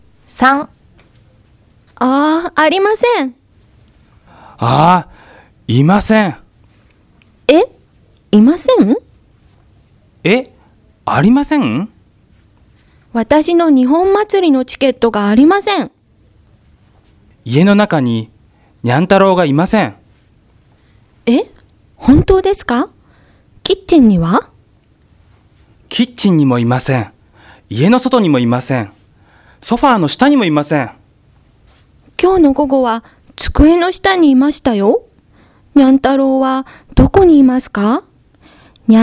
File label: Conversation